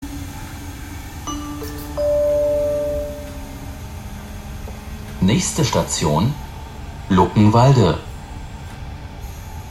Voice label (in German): bahnansage; luckenwalde